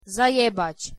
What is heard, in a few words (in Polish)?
wymowa po polski "zajebac"